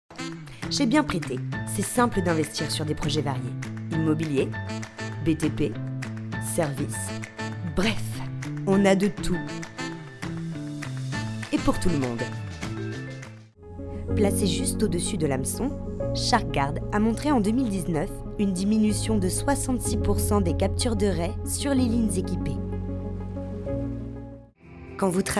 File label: French Corporate